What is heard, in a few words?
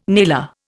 "nailer", Indian